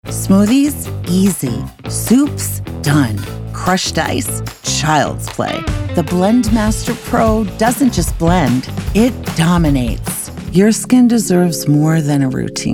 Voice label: Commercial Demo, voice over